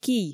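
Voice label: "кий", Komi, female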